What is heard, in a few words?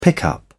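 "pickup", British English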